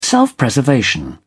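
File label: "self preservation", British English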